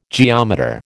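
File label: "geometer", American English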